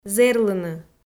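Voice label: "зэрлыны", Komi, female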